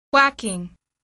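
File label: "whacking", English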